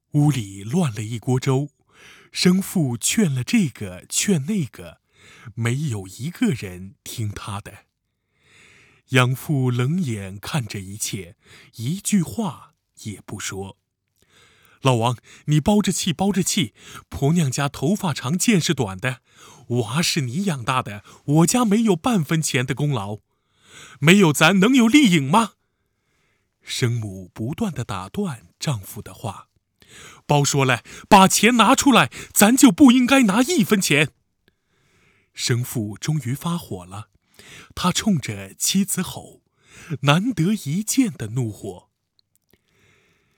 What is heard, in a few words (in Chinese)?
小说